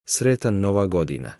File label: new year, Bosnian; Sretan nova godina